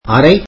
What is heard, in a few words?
tamil room